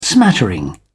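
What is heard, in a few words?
"smattering", English, British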